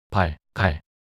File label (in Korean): "발갈" 낱소리 남자